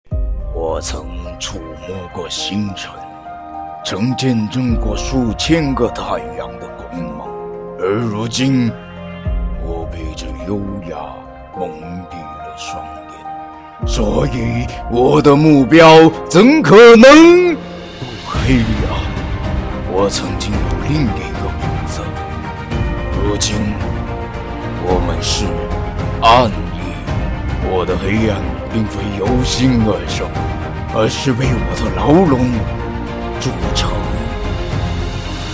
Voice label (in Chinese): 配音秀; 剑魔